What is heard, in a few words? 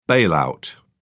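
"bailout", British English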